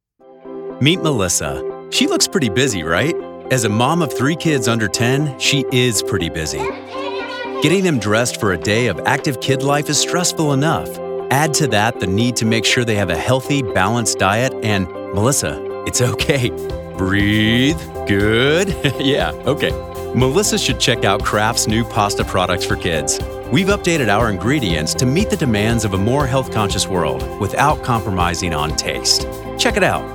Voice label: Explainer Food Grocery